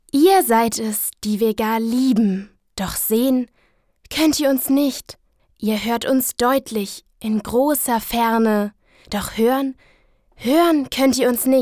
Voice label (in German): poetisch